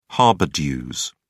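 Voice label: "harbour dues", British English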